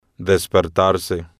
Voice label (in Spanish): "despertarse", spanish